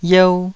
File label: "yeu2", Taiwanese